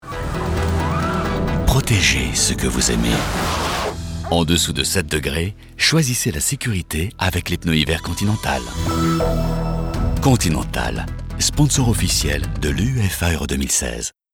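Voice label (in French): PUB, voix; Continental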